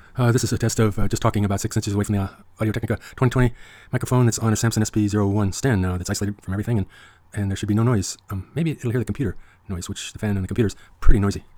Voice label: talking 2x; sonic; android 6.0.1